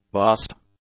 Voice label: "bust", English; adjectives

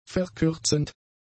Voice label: "verkürzend", German; adjectives

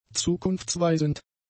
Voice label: "zukunftsweisend", German; adjectives

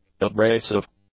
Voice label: "abrasive", english; adjectives

